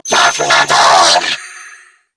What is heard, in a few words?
Reload Female; en; New War; Narmer Grineer